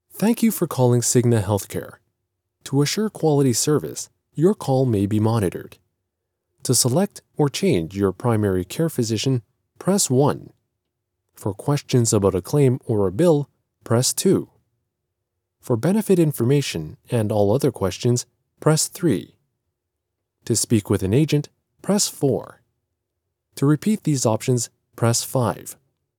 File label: phone